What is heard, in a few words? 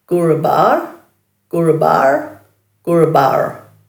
"gurabarr", Dhurga